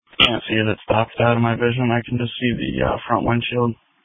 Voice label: Police Tactical Encrypted